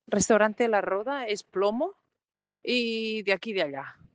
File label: Voice message